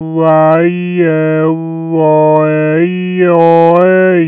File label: babble vowel space